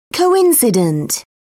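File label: "coincident", British English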